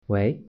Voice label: "hello", Mandarin

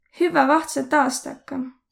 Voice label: new year, voro; Hüvvä vahtsõt aastakka; Happy New year

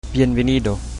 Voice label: welcome, chabacano

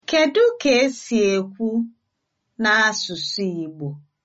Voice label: how to say, igbo

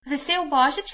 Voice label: christmas, slovenian

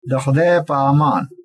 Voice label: goodbye, pashto

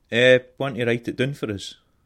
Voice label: write, scots

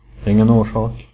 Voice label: youre welcome, swedish

